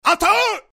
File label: "Ataak" voice karate figth manga; free videogame sound; Sound Graph